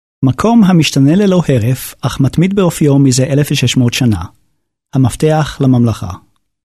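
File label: Hebrew male Narration Short; voice over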